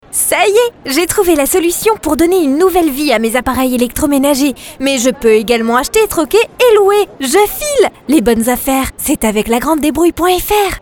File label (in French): SPOT RADIO Voix jeune dynamique; GRANDE DEBROUILLE